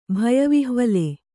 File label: "ಭಯವಿಹ್ವಲೆ", Kannada